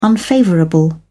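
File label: "unfavourable", English, uk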